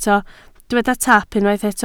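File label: "taap" Welsh, spoken word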